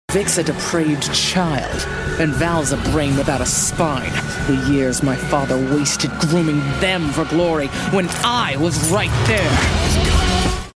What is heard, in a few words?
Villain video games biting cold powerful badass interactive deep harsh; seductive angry